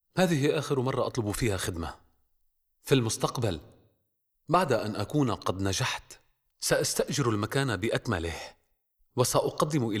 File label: Drama